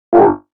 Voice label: grunt; chairman